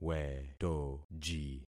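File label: "wetoji", concatenated syllables; Affixing experiment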